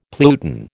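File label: "pluton", American English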